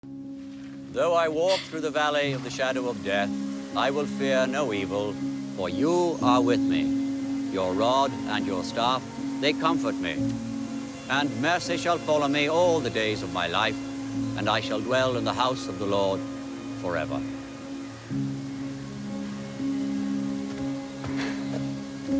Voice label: english irish tv shows; Warm, authentic Irish voice with depth, clarity and versatility

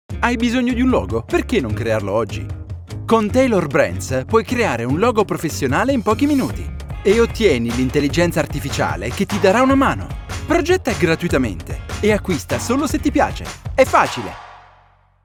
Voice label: italian promos

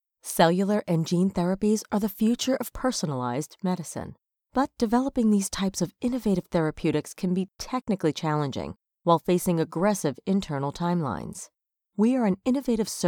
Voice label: english international corporate videos

